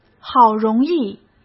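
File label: "好容易", Mandarin Chinese; hsk; chinese-flashcards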